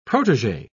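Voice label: "protegee", British English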